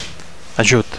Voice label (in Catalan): "ajut", Catalan; (m) help; aid; assistance